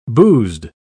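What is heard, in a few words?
"boozed", British English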